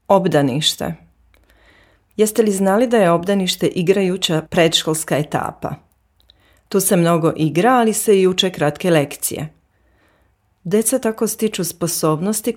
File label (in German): serb